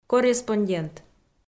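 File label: "корреспондент", Komi, female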